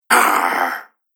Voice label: Pirate "Arhh"; Talking Sound Effects; Fantasy Sound Effect

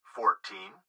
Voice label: Gps Voice, Male; Fourteen; Technology; Recorded Voice; Blastwave

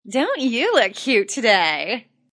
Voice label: Woman Says Don't You Look Cute Cheesy; Talking

